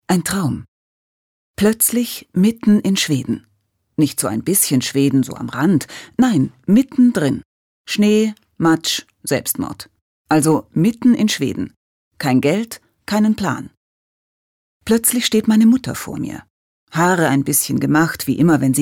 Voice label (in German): Schauspiel Neutral